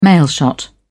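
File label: "mailshot", British English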